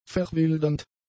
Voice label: "verwildernd", German; adjectives

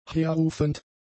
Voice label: "herrufend", German; adjectives

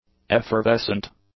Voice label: "effervescent", English; adjectives

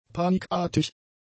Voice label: "panikartig", German; adjectives

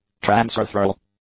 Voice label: "transurethral", English; adjectives

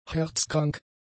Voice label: "herzkrank", German; adjectives

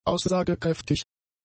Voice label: "aussagekräftig", German; adjectives

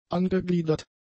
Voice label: "angegliedert", German; adjectives

